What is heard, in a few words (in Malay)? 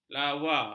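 "lawa", Malay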